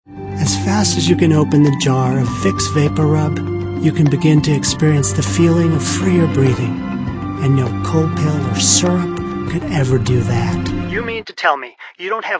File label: male, sophisticated